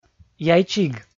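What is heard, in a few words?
"яйчиг", Komi, male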